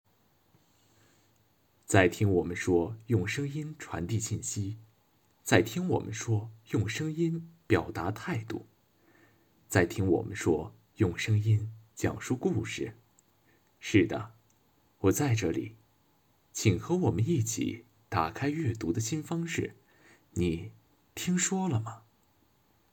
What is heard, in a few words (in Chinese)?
讲述类